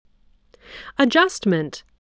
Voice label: "adjustment", English voice